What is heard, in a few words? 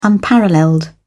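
"unparalleled", British English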